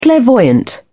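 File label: "clairvoyant", British English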